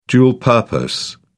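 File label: "dual purpose", British English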